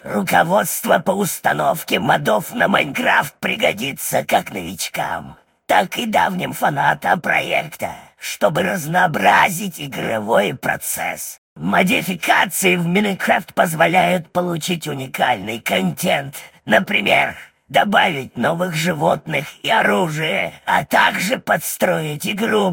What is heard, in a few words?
Cybervoice